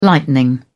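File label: "lightning", English, British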